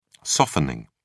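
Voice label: "softening", British English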